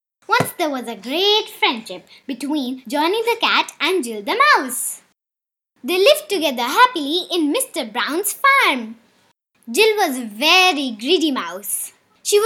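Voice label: English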